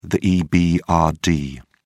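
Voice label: "ebrd", British English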